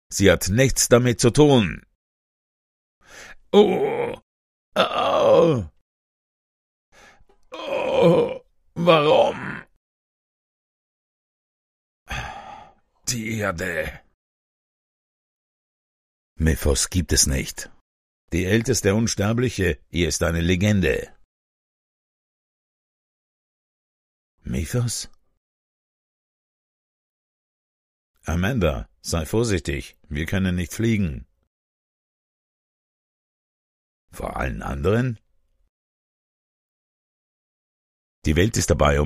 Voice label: Drama, German; DE